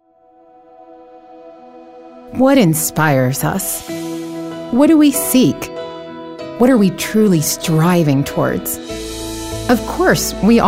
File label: Inspirational Bank